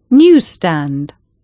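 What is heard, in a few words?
"Newsstand", English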